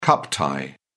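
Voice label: "cup tie", British English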